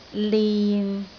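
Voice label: "lin6", Cantonese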